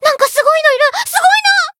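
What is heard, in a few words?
voice encounter fear, jp; ilulu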